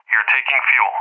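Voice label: "you are taking fuel", English speech, Tanker; Messages; DCS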